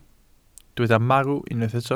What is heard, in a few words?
"marw", welsh